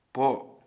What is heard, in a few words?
"to come out", Kham